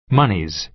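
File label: "monies", American English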